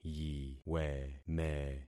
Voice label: "yiweme", concat syllables; Affixing experiment